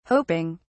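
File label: "hoping", British English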